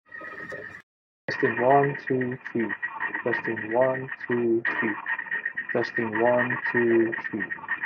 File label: Noisy; Moondrop Nekocake